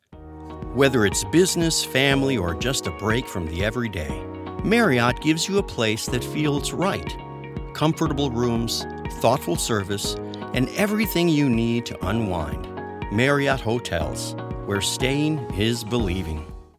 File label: Television AD welcoming luxury authentic; Marriot